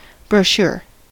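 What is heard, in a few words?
"brochure", US English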